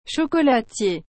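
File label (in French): "chocolatier", French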